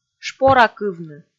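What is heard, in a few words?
"шпоракывны", Komi, female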